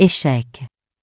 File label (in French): "Check", French; échec